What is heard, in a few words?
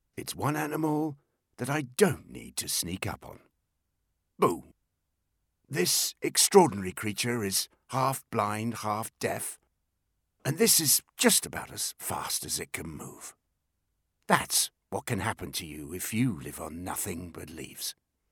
English UK